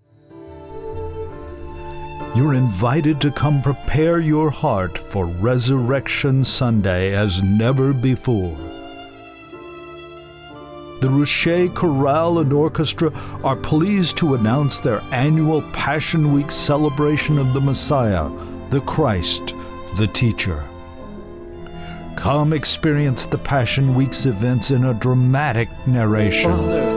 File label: Announcment; Colors 2014